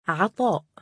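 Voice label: "3a6aa2" male, Arabic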